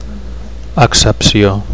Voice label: "accepcio", Catalan; (f) meaning; acceptation

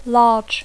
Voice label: "large", English

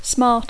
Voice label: "smart", English

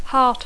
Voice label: "heart", English

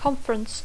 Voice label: "conference", English

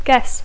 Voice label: "guess", English

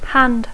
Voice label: "hand", English

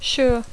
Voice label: "sure", English

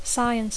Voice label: "science", English

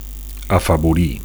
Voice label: "afavorir", Catalan; to favour (Br.); to favor (Am.)

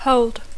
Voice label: "hold", English; verb tr conj (held/held); agafar; mantenir

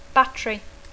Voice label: "battery", English

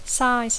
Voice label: "size", English; mida; dimensió; grandària

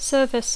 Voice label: "service", English

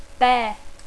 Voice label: "bear", English

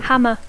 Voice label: "hammer", English; martell

